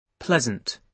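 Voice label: "pleasant", British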